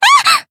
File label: damaged jp; isuka